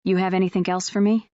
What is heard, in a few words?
fem